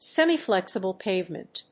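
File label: "semiflexible pavement", English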